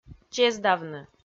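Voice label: "чездавны", Komi, female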